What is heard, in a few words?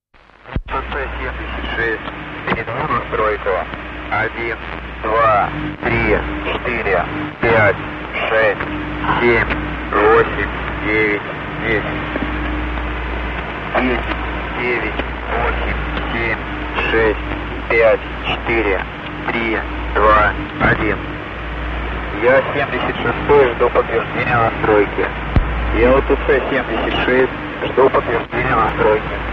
count in russian; irdial